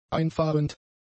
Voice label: "einfahrend", German; adjectives

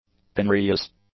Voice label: "penurious", English; adjectives

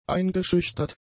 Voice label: "eingeschüchtert", German; adjectives

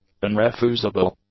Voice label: "unrefusable", english; adjectives

